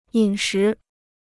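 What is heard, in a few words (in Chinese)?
"饮食" Mandarin Chinese; 饮食 (yǐn shí); eating and drinking; food and drink; diet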